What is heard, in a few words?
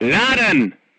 voice message load, crew tanks german